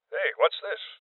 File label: Voices Loot Spotted Male Old; rebel cops 2019